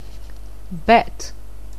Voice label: "bet", anglais